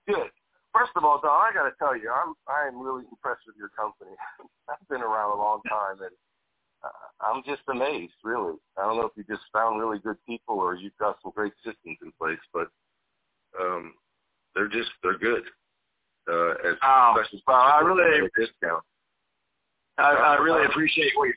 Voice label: phone compliment